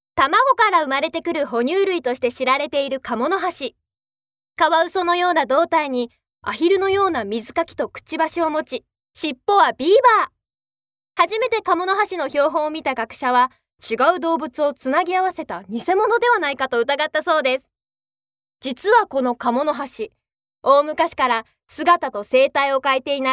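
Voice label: Japanese, female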